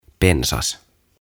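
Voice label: "Pensas", Finnish; Bush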